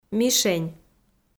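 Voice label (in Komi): "мишень", Komi, female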